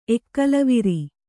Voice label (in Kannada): "ಎಕ್ಕಲವಿರಿ", Kannada